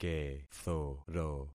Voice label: "kethoro", concat syllables; Affixing experiment

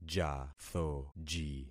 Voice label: "jathoji", concat syllables; Affixing experiment

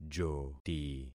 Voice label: "joti", concat syllables; Affixing experiment

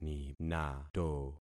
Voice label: "ninato", concat syllables; Affixing experiment

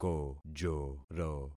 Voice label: "kojoro", concat syllables; Affixing experiment

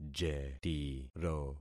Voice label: "jetiro", concat syllables; Affixing experiment

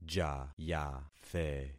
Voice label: "jayathe", concat syllables; Affixing experiment

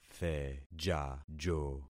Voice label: "thejajo", concat syllables; Affixing experiment

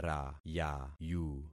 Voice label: "rayayu", concat syllables; Affixing experiment

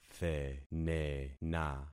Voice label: "thenena", concat syllables; Affixing experiment

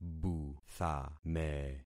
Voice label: "buthame", concat syllables; Affixing experiment

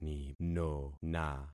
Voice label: "ninona", concat syllables; Affixing experiment

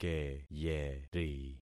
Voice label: "keyeri", concat syllables; Affixing experiment

